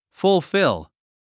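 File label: "fulfill", English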